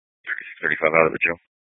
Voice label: Police 1 Dispatch